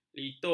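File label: "litup", Malay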